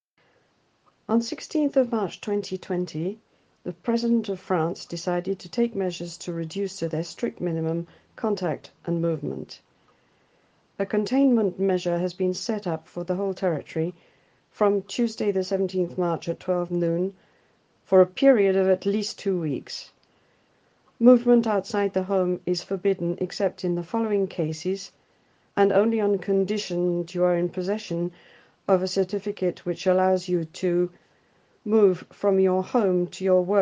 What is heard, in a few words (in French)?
anglais mess prévention covid; coronavirus